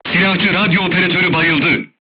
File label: voice message machine gunner contused, turkish